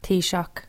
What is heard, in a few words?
"Taoiseach", Irish